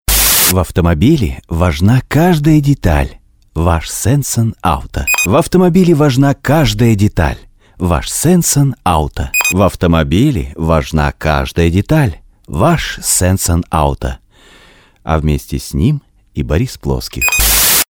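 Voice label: russian male commercial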